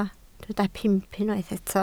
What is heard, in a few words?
"pump", Welsh